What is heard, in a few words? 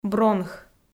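"бронх", Komi, female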